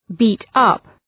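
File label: "beat up", English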